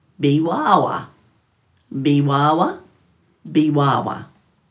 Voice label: "biwaawa", Dhurga